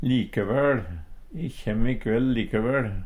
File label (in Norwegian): likevael, Numedalsmål; likevel; likevæL; Adverb